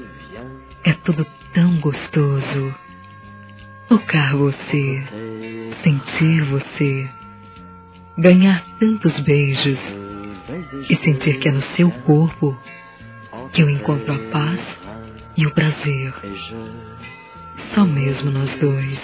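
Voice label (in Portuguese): Amor, Como é Maravilhoso, Feminino; Telemensagens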